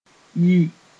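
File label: "yi", Mossi; two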